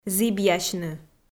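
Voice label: "зібъясьны", Komi, female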